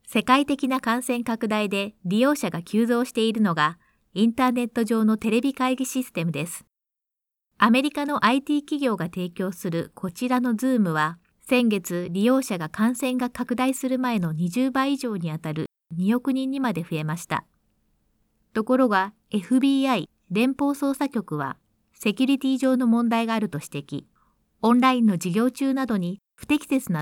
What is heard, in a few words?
News Covid-19 News Segment